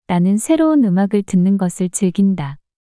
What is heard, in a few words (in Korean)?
나는 새로운 음악을 듣는 것을 즐긴다 문장 여자